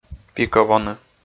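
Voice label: "пикӧ воны", Komi, male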